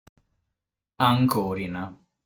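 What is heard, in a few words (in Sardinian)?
"Ancòrina", Sardinian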